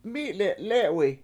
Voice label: mI7le7 lE7wi I Ate Everything, Washo